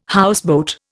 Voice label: "houseboat", Indian